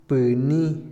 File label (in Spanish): golpear, Otomí, hombre; INALI